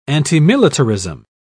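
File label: "antimilitarism", British English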